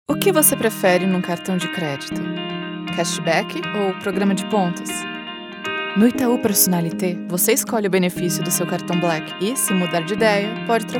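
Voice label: commercial ad elegant soft no pressure female brazilian portuguese; itaupersonnalite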